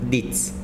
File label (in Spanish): "espalda" Zapoteco